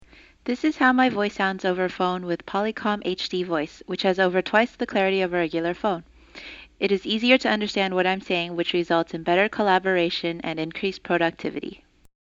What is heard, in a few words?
HD Voice